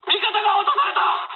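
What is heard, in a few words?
Japanese aircraft destroyed mood high; War Thunder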